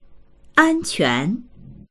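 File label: "an1quan2", Chinese; 安全; 安全である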